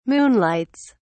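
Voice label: "moonlights", British English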